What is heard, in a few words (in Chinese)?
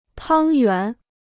"汤圆", Chinese